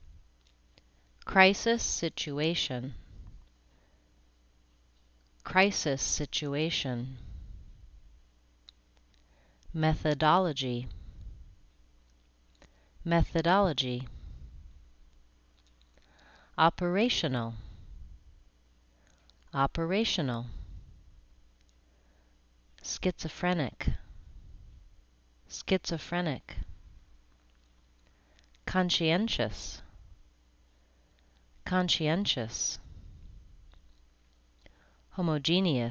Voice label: business words syllable

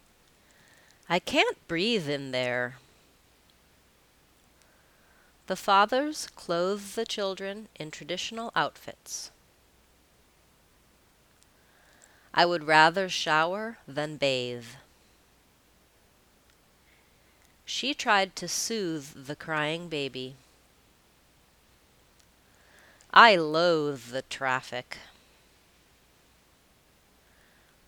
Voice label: final voiced th phrases

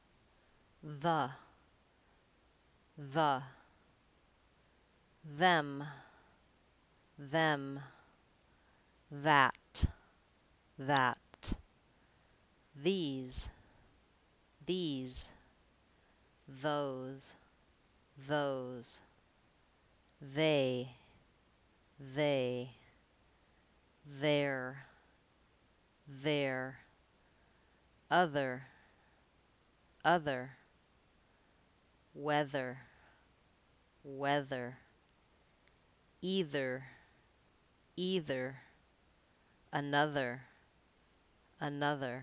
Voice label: voiced th words

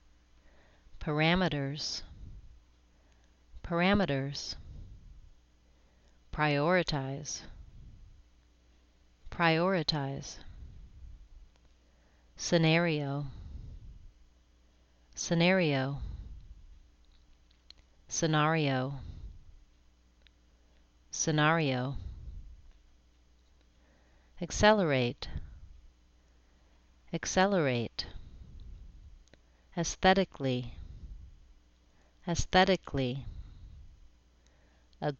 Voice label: business words syllable

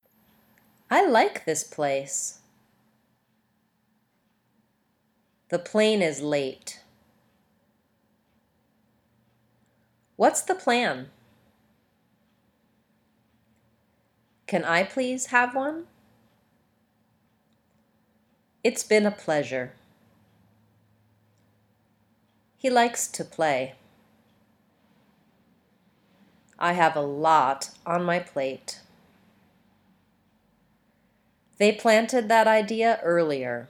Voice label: pl phrases

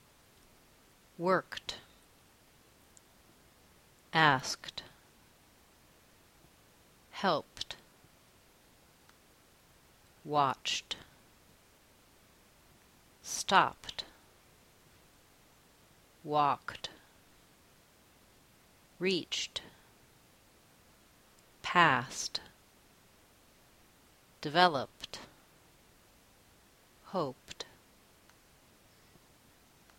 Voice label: voiceless t

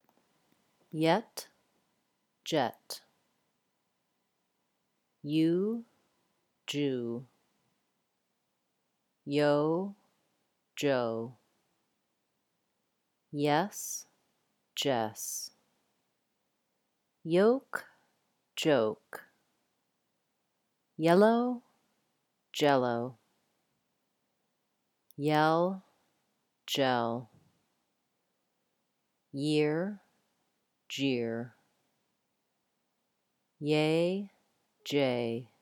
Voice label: y j minimal pairs

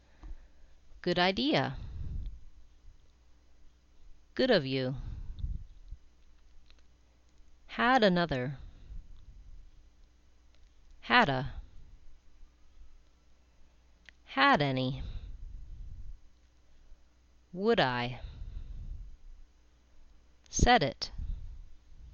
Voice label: final d consonant to vowel

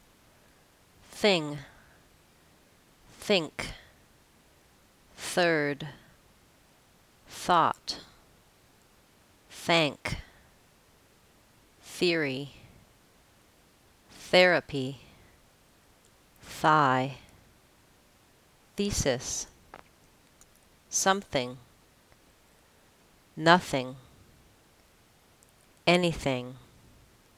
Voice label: voiceless th words

